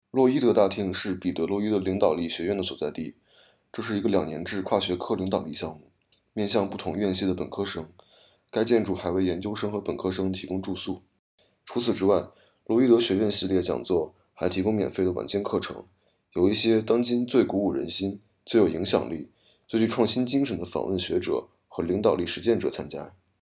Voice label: Mandarin; Lougheed Hall